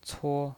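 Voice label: "cuo", Mandarin Chinese, first tone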